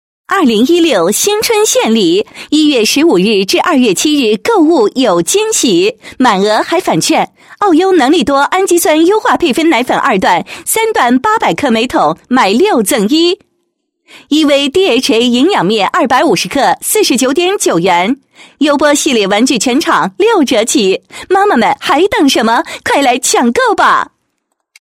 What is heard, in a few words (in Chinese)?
女-春节广告-某婴幼儿用品店新春促销, 配音案例